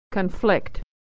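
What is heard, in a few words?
"to conflict", American English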